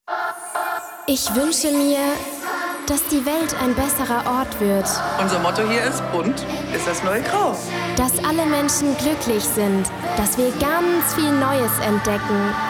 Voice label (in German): Trailer, frauen; Pro7 Highlights2020